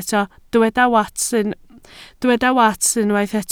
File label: "wats", Welsh